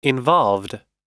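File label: "involved", US English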